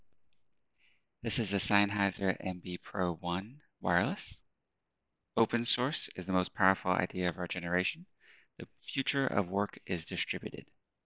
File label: sennheiser mb pro 1 bluetooth